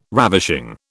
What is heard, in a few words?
"ravishing", British